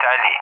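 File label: "tally", English speech; Messages; Allied Flight; DCS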